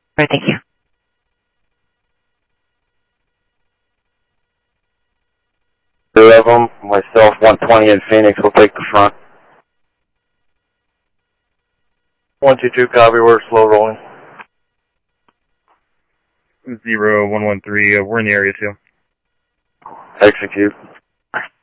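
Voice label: Sheriff Lake Patrol, scanner audio trunk; ThompsonPeakScot; MCSO Lakes 1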